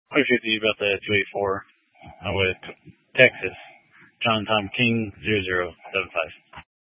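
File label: Troop 2A Dispatch, scanner audio recording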